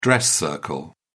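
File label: "dress circle", British English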